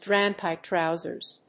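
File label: "drainpipe trousers", English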